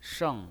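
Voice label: "sheng", Mandarin Chinese pinyin, fourth tone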